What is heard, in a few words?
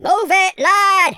"hurry", Pirate